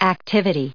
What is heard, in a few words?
"activity", us